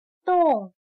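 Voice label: "冻" Chinese, dòng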